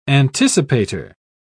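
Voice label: "anticipator", English, British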